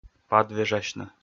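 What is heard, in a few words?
"падвежасьны", Komi, male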